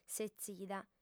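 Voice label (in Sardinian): "setzida", female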